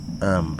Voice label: "woman", wahgi; lae unitech

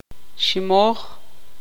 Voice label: tzutujil; amarrar

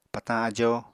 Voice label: "patañ ajo", apatani

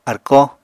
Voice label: "arkó", Apatani

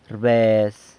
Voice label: "rbez", waits for, San Lucas Quiavini

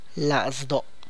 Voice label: "heart", tlacochahuaya

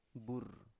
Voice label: "bur" Biak

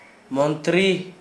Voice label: minister, Gta, Dialect: Hill; montri

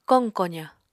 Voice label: "koq kozañ", Apatani

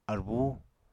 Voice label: "arbú", Apatani

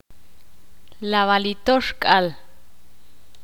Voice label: ixil; cincuenta

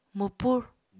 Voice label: "mwpur", apatani

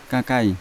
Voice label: "kakai", Yawa; unat

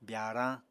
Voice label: "byaarañ", Apatani

